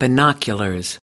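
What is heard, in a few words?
"binoculars", US English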